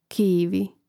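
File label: "kivi", Croatian; Mrežnik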